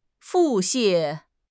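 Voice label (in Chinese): "腹泻", Mandarin Chinese; HSK6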